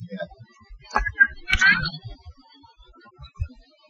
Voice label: "Tell Me Your Name" sound; EVP, or electronic voice phenomena, are said to be the disembodied voices from spirits